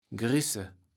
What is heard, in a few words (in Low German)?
"grise", kaevels platt